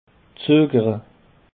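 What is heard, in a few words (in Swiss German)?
"zaegere", Alsatian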